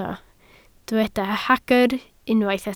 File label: "hagr", Welsh